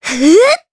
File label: Vox Casting, jp; kingsraid